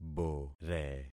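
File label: "bore", concatenated syllables; Affixing experiment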